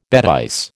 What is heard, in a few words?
"bÈtise", American